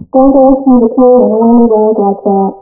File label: laser vibrometer, Recorded Speech; VisualMic; TIMIT fadg0 sa2